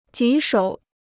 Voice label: "棘手", Chinese

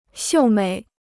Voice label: "秀美", Chinese

